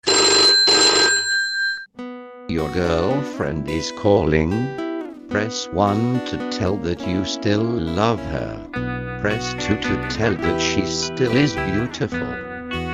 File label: Phone Voice